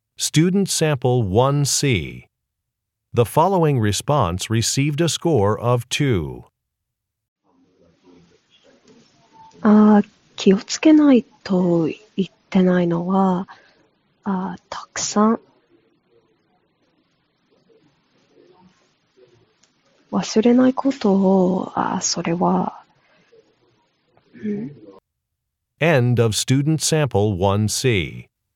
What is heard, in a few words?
Japanese conversation